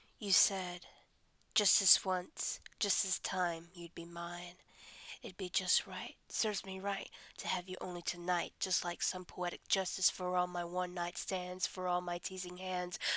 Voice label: [F] Erotic poetry Slam poetry; Erotic audio; Just This Time